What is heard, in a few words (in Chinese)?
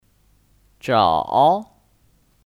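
"找", Mandarin Chinese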